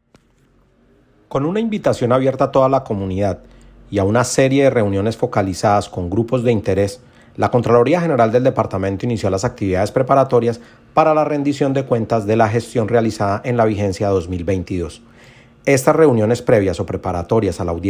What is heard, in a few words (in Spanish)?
Voz Comunicado